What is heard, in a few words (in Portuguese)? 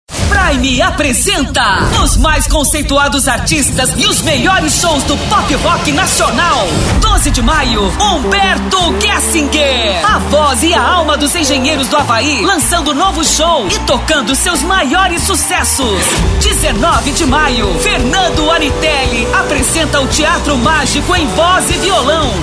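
Feminino, Português - Brasileiro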